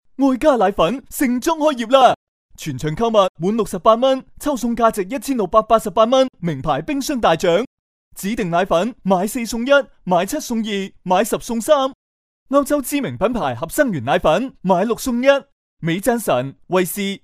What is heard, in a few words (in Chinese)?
粤男 促销; 爱家奶粉店